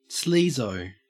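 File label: "sleazo" English, Australian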